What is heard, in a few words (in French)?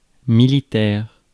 "militaire", mots francais